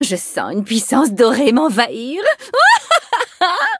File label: levelup, fr; Cookie Run